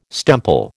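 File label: "stemple", American English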